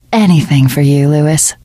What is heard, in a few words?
you are welcome, teen girl; source engine; left 4 dead 2; survivor